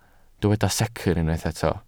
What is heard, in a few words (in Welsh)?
"secr" Welsh